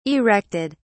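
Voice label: "erected", British English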